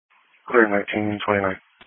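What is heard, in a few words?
Police Patrol (Dispatch)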